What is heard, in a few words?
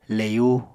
"leyu", Apatani